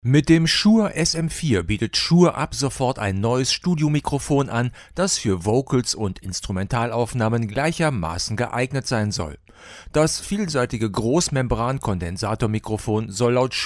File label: Rode Broadcaster